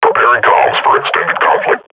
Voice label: combat idle, grunt; Half Life Model Pack

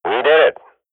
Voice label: radio bot end solid, swat; Counter Strike Model Pack; CS:GO

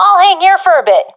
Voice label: waiting here, professional fem; vo; Counter Strike Model Pack; CS:GO

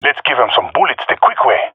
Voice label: attacking, jungle male epic; player vo; Counter Strike Model Pack; CS:GO

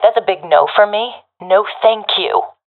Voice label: negative, professional fem; vo; Counter Strike Model Pack; CS:GO

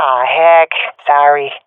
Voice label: sorry, swat fem; vo; Counter Strike Model Pack; CS:GO

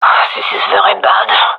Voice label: scared, gendarmerie fem; vo

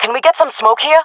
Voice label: request smoke, jungle fem; player vo jungle fem; Counter Strike Model Pack; CS:GO

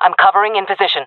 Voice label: in position, jungle fem; vo

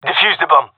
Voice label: cover defuse, gendermerie male; vo

